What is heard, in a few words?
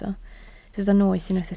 "noeth", Welsh